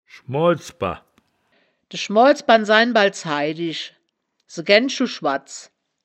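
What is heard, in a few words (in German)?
"schmools-ber", Wittlicher Wörterbuch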